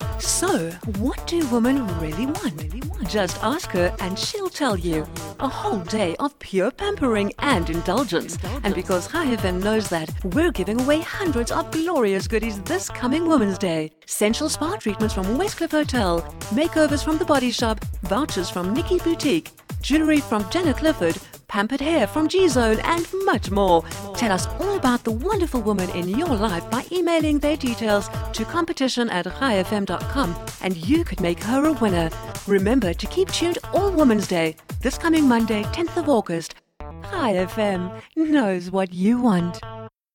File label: female English South African radio station promo; chai fm